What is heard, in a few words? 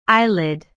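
"eyelid", English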